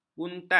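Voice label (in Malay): "buntat", Malay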